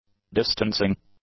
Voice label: "distancing", English; adjectives